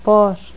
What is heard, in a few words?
apical postalveolar fricative, toda